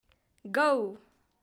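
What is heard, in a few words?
"go", English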